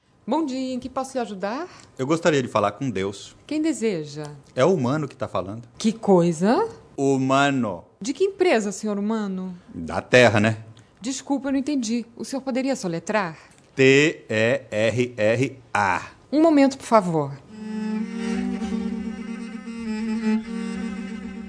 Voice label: Convers Portuguese